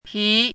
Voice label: "pí", hanzi